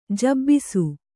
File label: "ಜಬ್ಬಿಸು", Kannada